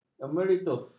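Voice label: "emeritus", Malay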